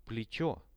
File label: "pletcho", Russian; плечо